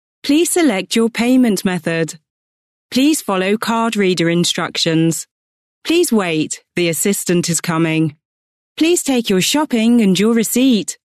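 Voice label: English, UK, female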